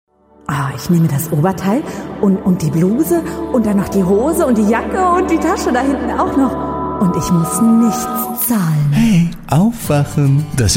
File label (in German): Radiospot ueberdreht